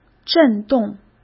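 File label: "振动", Mandarin Chinese; hsk; chinese-flashcards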